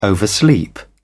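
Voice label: "oversleep", English, British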